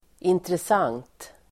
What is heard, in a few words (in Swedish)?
"intressant", Swedish